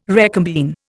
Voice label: "recombine", Indian